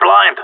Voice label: flashed, radio; GSG9

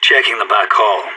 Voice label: checking backhall, radio; SAS

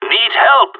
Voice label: need help, radio; GSG9

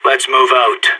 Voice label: pep talk, radio; SAS

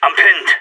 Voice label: pinned down, radio; SAS

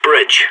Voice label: bridge, radio; SAS

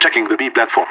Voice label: checking b platform, radio; LEET

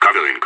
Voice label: covering, radio; IDF

